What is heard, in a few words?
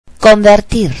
"convert to", spanish